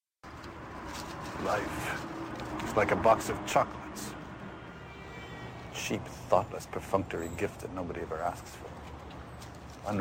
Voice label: tv speeches